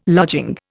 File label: "lodging", Indian